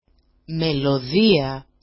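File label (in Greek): "melodia", Greek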